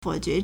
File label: "fou3 jue2", Chinese